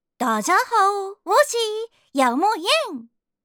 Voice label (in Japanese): 中国語で「みなさんこんにちは！」; システムボイス